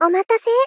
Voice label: Gentle Return Omatase; Rei Yumesaki

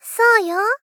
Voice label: Gentle Agree So yo

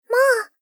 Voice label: Polite, Perceive, "Maa"; Rei Yumesaki

